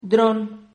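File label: "drón", Spanish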